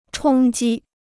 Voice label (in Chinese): "冲击", Chinese